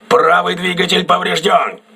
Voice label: voice message right engine damaged, crew ships russian